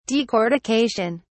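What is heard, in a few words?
"decortication", British English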